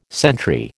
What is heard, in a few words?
"sentry", American